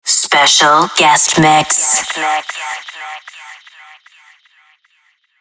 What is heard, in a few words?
sweeper special guest mix female; killerintrosnet